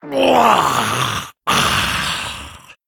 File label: Male Murloc Winstreak